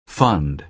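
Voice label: "fund", American English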